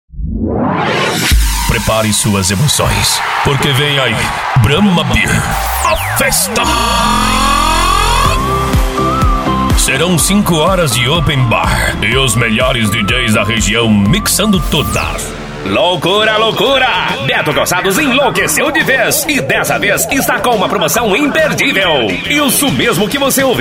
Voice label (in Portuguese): Locução Impacto